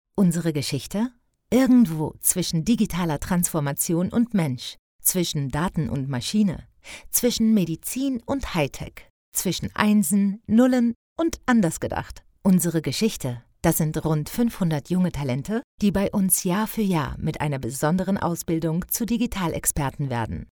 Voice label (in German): DE Imagefilm; IT-Institut